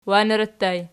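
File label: "wanerattu" ergative, Archi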